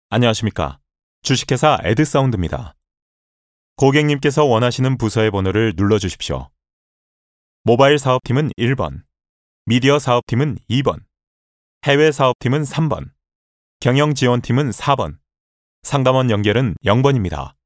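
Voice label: Korean, male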